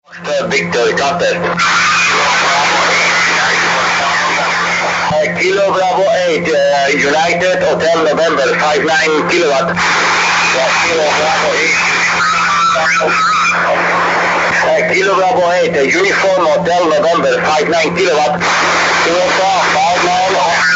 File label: 20m Phone; ARRL